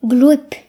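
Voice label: "wet", Breton; gcompris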